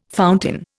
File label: "fountain", Indian